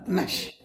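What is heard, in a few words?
"chocolate", Tlacolula Zapotec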